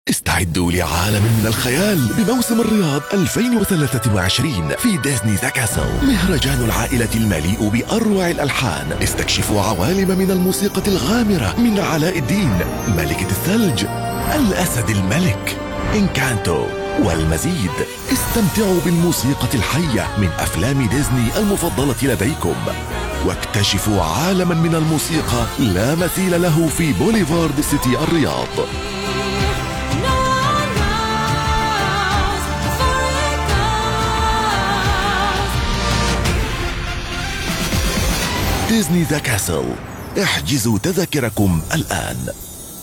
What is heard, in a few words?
Trailer Arabic MSA; Disney The Castle